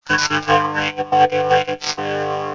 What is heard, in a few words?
ring, vocoder